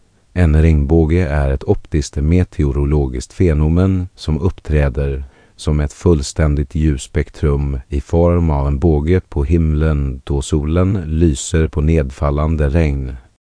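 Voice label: speaker, American English; piper-voices; sv SE; nst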